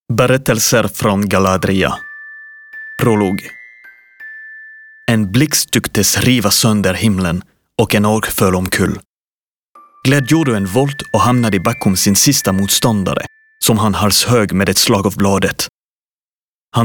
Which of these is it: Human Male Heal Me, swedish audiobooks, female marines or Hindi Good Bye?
swedish audiobooks